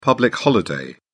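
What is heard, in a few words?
"public holiday", British English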